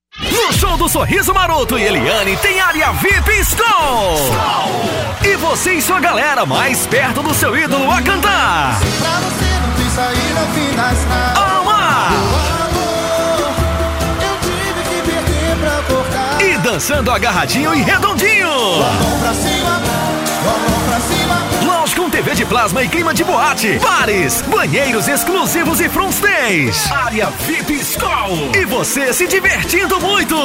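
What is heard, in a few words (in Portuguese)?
chamada locutor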